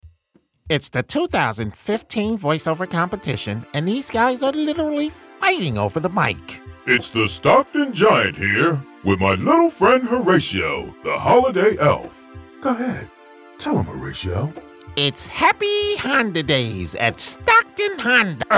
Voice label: Character VO